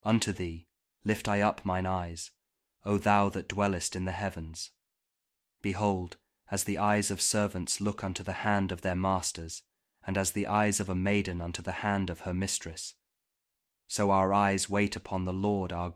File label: psalm kjv king james audio bible